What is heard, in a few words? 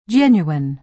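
"genuine", American English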